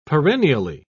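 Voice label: "perennially", British English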